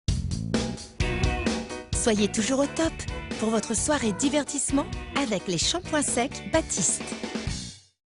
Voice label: shampoing Girly

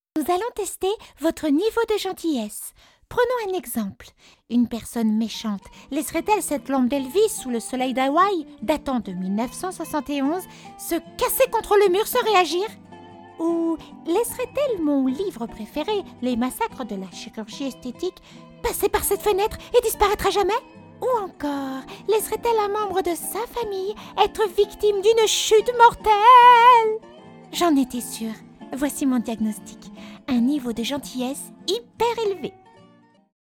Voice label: dessin animee fillette

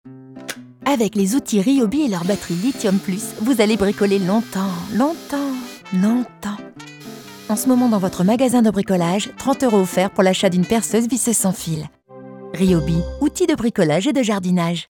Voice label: clin d'oeil fipette, voix off comédienne; Ryobi

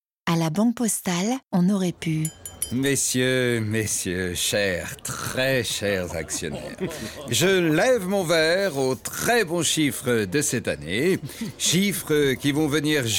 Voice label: narrative posee; Banque Postale

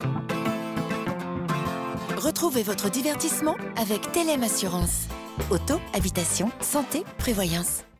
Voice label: Assurance posée claire douce; Thélem

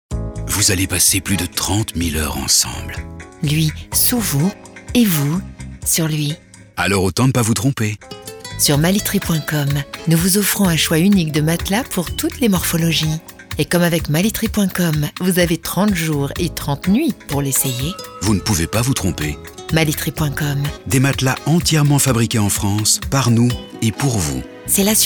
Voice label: sensuelle douce, voix off

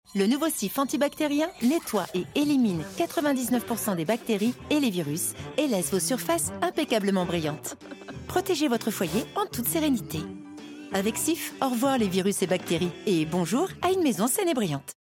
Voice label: Pub TV dedramatisante; CIF

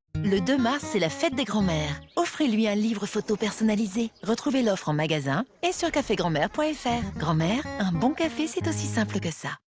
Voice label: Maman happy; Cafe G mere

